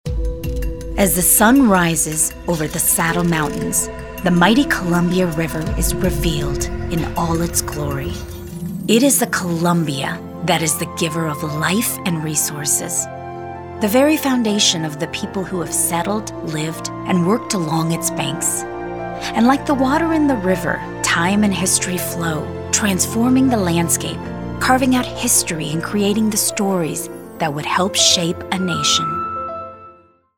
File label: Narration; Landrum Arts LA